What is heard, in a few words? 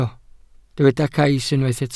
"cais", welsh